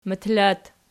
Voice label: "motol", Archi